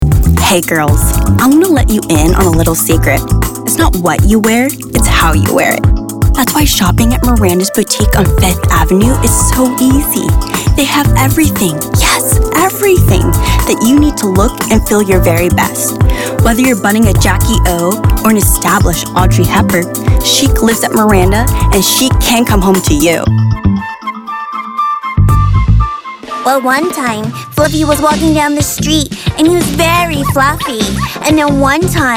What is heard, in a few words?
Voiceover